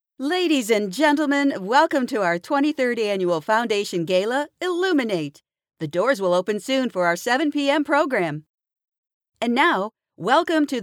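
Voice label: English American, female, voice over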